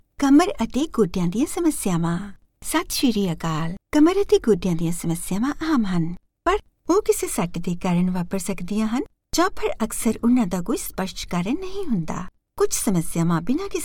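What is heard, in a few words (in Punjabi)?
Punjabi, General; GoLocalise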